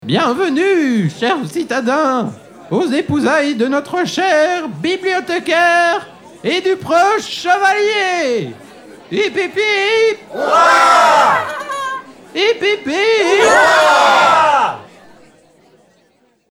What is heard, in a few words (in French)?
NARRATION